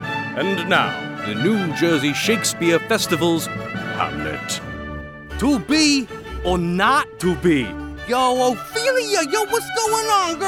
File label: Animation VO